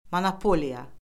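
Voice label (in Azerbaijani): "monopoliya", Azerbaijani; Orfoqrafiya Lüğəti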